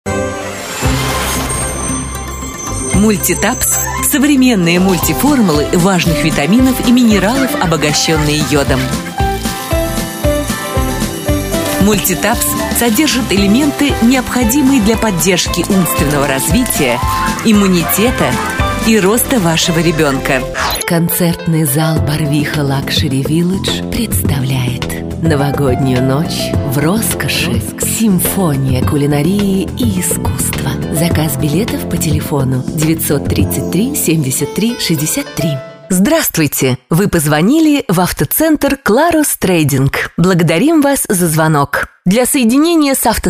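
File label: Populyarnyj federalnyj diktor, popular federal announcer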